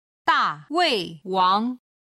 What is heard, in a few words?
"daweiwang", chinese